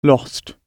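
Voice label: "lost", British English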